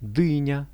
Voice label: "dynia", Russian; дыня